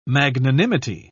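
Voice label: "magnanimity", British English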